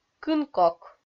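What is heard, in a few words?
"кын кок", Komi, female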